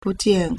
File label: "bu2jian4", Chinese